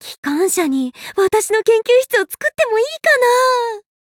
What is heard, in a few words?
alchemist touch, ja